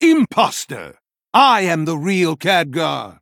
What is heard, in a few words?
Male Human